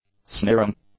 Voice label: "sneering", english; adjectives